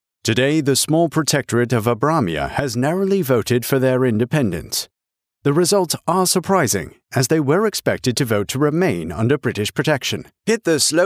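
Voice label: English male; lektoring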